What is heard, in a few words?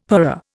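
"purée", Indian; Dictionary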